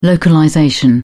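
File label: "localization", English, British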